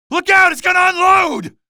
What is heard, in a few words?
alert gunship, male